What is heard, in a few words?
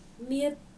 "miɛ̈th", Dinka